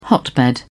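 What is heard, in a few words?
"hotbed", British English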